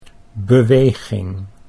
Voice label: "beweging", Dutch